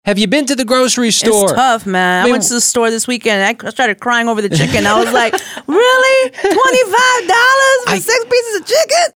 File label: crying over chicken